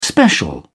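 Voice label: "special", British English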